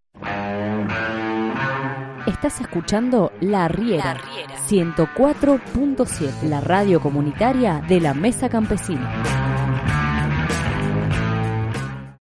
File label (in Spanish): identificador emisora rock; mistica sonora